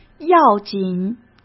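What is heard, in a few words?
"要紧", Mandarin Chinese; chinese-flashcards; hsk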